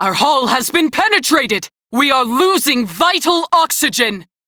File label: Crew See Breach, Female; Dialog; en; Warframe; Railjack Crew; New Loka